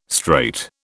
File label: "strait", British